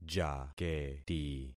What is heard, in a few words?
"jaketi", concat syllables; Affixing experiment